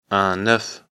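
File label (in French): "oeuf", French; afrikalan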